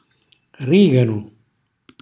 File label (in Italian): "rriganu", Calabrese; origano; cucina calabrese